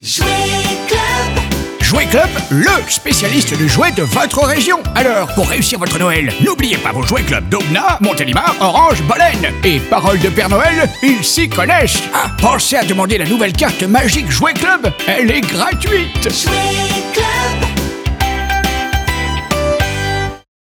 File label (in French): spot; joueclub père noël